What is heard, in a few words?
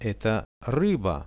eto ryba, Russian; products